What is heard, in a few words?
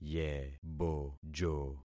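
"yebojo", concatenated syllables; Affixing experiment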